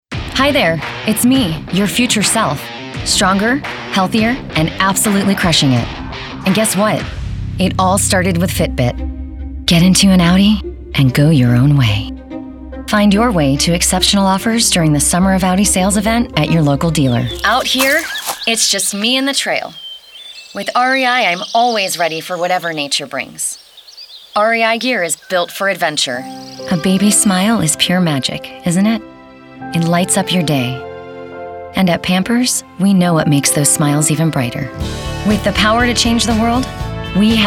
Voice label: commercial